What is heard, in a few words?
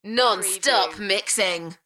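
British female Non-stop mixing; beanstalk audio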